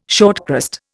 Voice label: "shortcrust", Indian